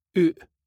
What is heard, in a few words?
"oot", スコットランド英語; 外へ・アウト